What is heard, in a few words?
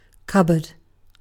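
"cupboard", British English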